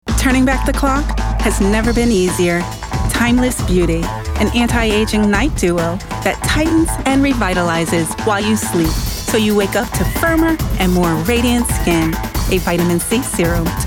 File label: Commercial